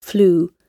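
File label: "flew", British English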